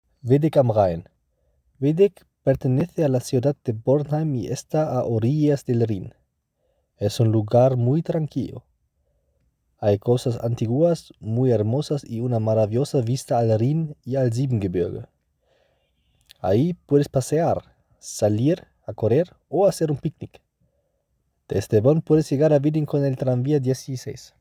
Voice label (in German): Spanisch; Widdig am Rhein